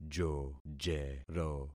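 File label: "jojero", concatenated syllables; Affixing experiment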